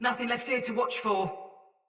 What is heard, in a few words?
Patrol Spoken Soldier Mace Agent Female; Battle; Sinecure Melee